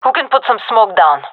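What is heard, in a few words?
request smoke, gendarmerie female; vo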